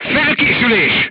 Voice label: voice message reloading, crew tanks hungarian

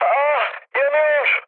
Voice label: on fire, mood med, speech tur; War Thunder

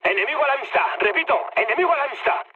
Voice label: enemy detected, mood med, speech; War Thunder

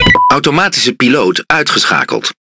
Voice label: NL Speech Autopilot Disabled; World Of Warships

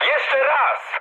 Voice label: bomb failure mood low, speech pol; War Thunder

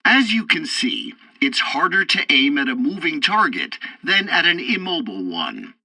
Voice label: tutorial tank arcade target camera, english; War Thunder

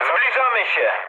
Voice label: near waypoint mood high, speech pol; War Thunder

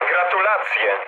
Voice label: player killed enemy mood low, speech pol

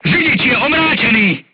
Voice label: voice message driver contused, crew tanks czech

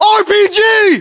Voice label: threat rpg, US

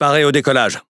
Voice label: Speech Pilots Torpedo Ready, FR; World Of Warships

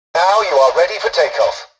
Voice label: tutorial dialogue, english; War Thunder

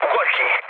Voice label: bailout, speech hun; War Thunder

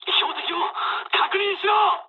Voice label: player friendly fire mood med, speech jp

